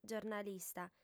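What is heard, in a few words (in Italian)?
"giornalista", lingua sarda, female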